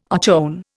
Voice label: "ochone", Indian

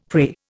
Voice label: "prey", Indian; Dictionary

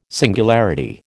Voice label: "singularity", American

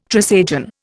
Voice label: "Trisagion", Indian

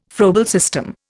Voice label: "Froebel system", Indian

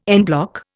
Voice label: "en bloc", Indian

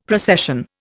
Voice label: "procession", Indian; Dictionary

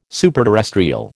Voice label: "superterrestrial", American

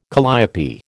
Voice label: "calliope", American

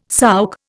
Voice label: "souk", Indian

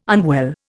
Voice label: "unwell", Indian

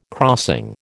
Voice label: "crossing", American

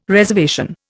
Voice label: "reservation", Indian

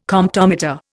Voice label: "Comptometer", Indian

